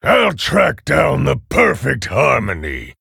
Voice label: Male Half Orc, Emote Picked